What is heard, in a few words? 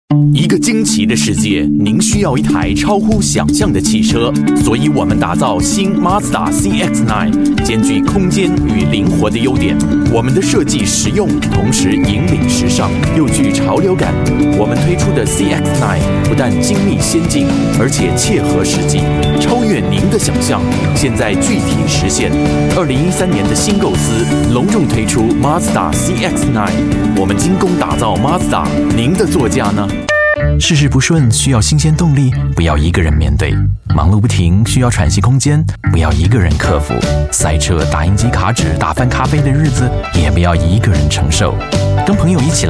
chinese voice over male commercial